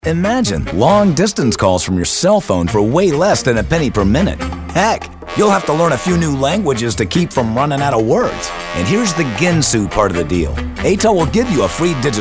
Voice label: upbeat

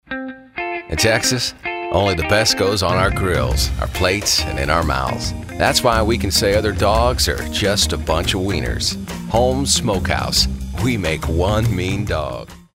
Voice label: folksy

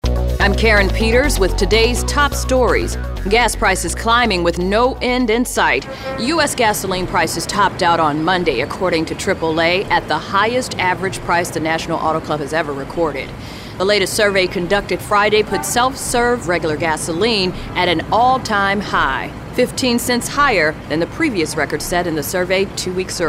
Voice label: newscasters

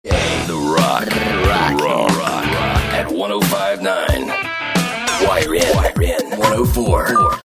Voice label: imaging

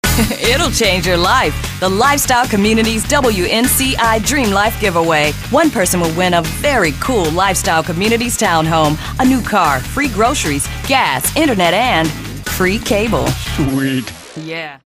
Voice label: upbeat

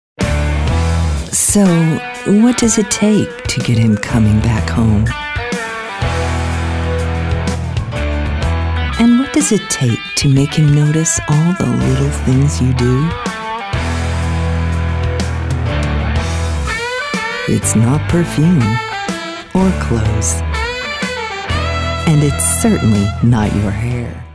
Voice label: Sexy

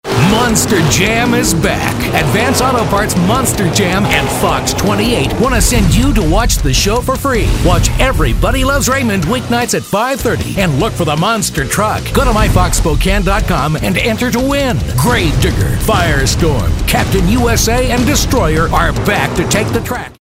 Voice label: tough and gritty movie trailer

